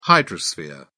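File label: "hydrosphere", British English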